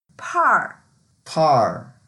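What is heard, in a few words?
"par4", Mandarin Chinese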